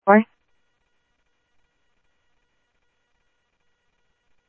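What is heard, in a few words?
Sheriff East County, scanner audio; ThompsonPeakScot; MCSO - EAST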